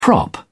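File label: "prop", British English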